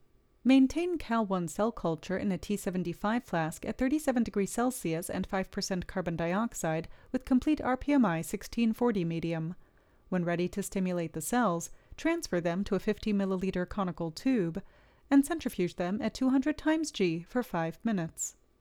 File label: Voiceover